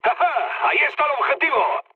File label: near waypoint, mood med, speech; War Thunder